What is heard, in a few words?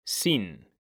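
"sin", Spanish, male; mekanta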